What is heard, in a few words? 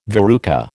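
"verruca", American English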